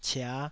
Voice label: "cia5", Taiwanese

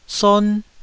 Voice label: "son1", Taiwanese syllable

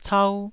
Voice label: "cau1", taiwanese Syllables

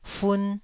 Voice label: "fun1", Taiwanese

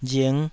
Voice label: "ring5", Taiwanese

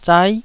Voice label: "zai9", taiwanese

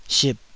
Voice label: "sip3", taiwanese Syllables

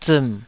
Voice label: "ziim3", taiwanese

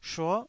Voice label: "shuo9", taiwanese Syllables

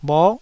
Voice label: "bho9", taiwanese Syllables

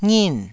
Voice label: "ngin4", Taiwanese

